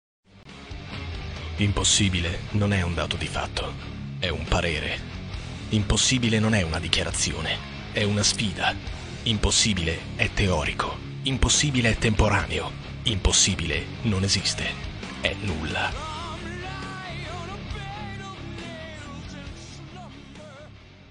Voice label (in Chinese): 意大利语男 外语 小语种 浑厚